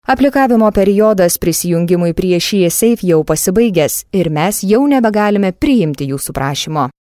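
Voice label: Lithuanian corporate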